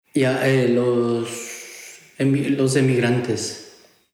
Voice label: spanisch ecouador

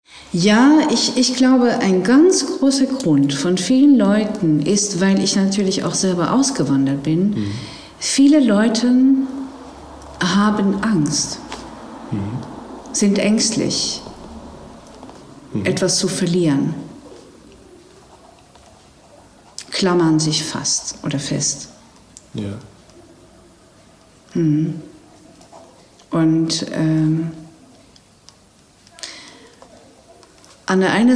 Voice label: niederlaendisch f

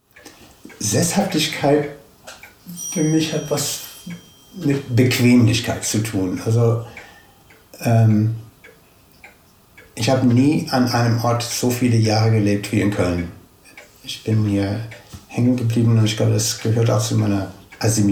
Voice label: us englisch

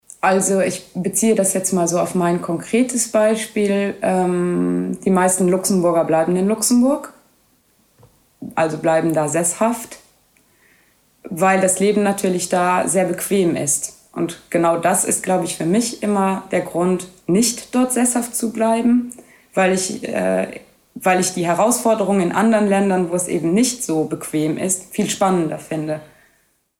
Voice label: luxemburgisch

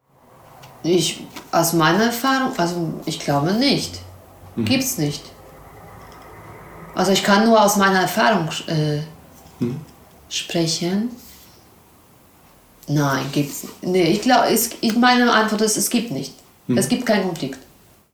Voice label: polnisch

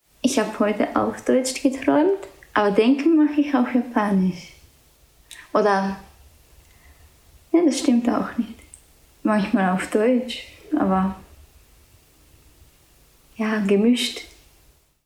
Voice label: japanisch

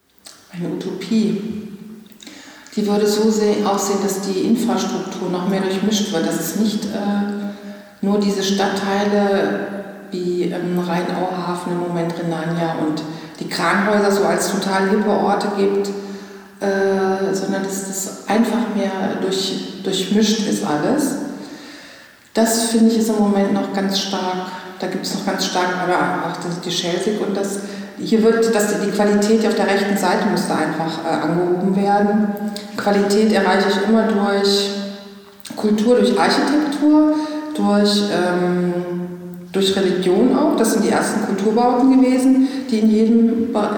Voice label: hessisch

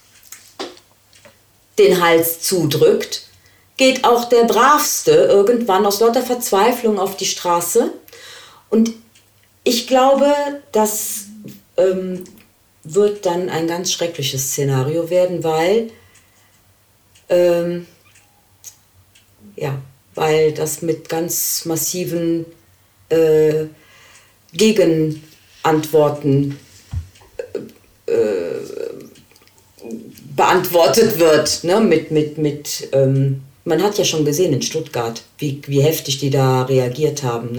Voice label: deutsch italien

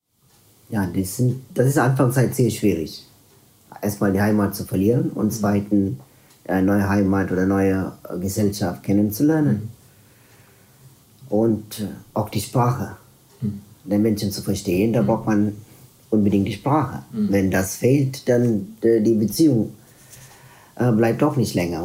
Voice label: tamilisch sri lanka

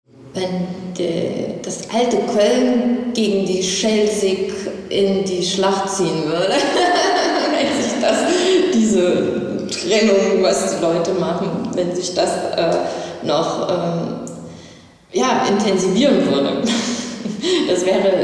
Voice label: thueringisch

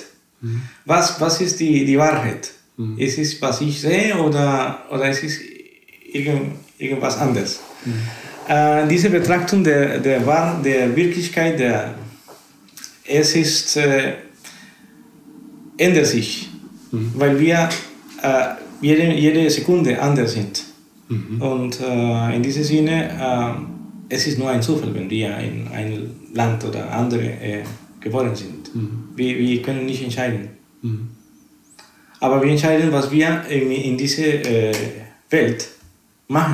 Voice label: spanisch costa rica

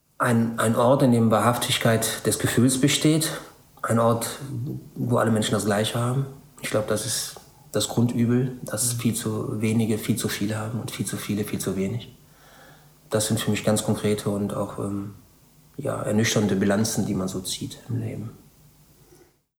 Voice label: kroatisch

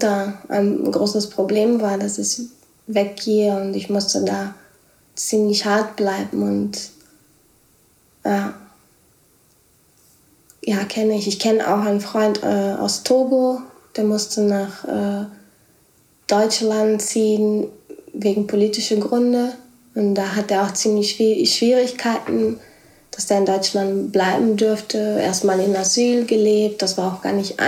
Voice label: portogiesisch brasilien

